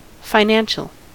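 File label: "financial", US English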